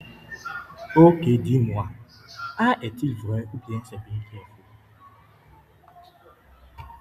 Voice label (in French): FRANCAIS